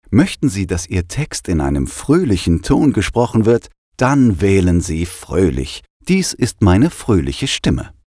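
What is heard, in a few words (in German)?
fröhlich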